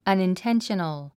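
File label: "unintentional", English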